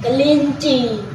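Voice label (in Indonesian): "Kelinci", Indonesian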